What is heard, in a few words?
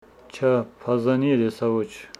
Homshetsi no we did not see the eagles